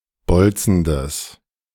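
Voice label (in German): "bolzendes", German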